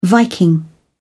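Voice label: "viking", English, British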